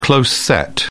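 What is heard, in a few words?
"close-set", British English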